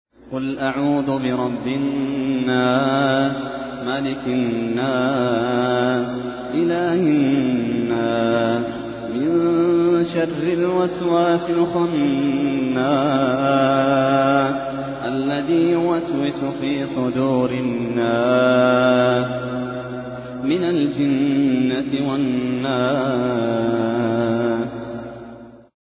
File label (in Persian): ترتیل سوره ناس